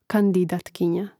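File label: "kandidatkinja", Croatian; Mrežnik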